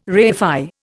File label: "reify", Indian